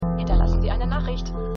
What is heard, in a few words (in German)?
Telefonstimme; This Is Us